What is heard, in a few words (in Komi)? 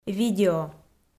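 "видео", Komi, female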